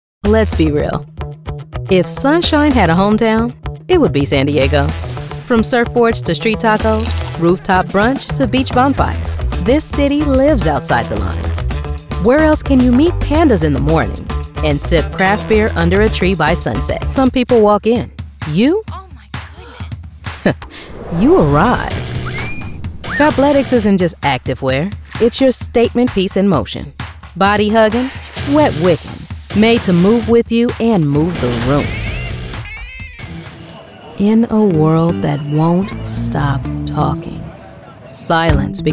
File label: Commercial, The Caring Professional Voice